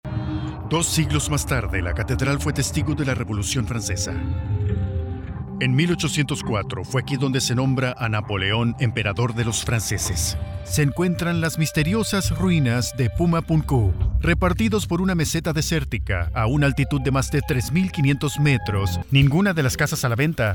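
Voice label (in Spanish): doblaje, Mexico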